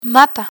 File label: "mapa", Spanish; learn spanish